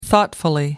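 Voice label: "thoughtfully", British English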